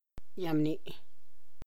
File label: "yabni", tlacochahuaya; yabni (árbol)